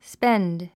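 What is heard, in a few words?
"spend", English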